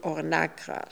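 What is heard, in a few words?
"Ornakra", pronunciations; Fourth Way; Beelzebub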